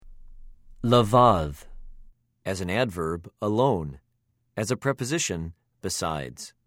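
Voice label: "לְבַד", Hebrew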